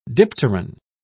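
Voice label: "dipteran", British English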